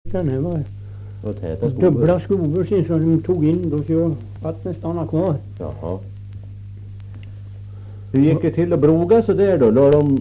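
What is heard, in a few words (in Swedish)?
Österfärnebo Gästrikland, dialekter; Folkminnen; Svenska sagor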